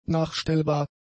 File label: "nachstellbar", German; adjectives